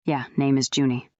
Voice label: fem